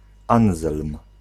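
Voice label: "Anzelm", Polish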